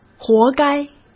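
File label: "活该", Mandarin Chinese; chinese flashcards; hsk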